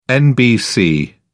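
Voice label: "nbc", British English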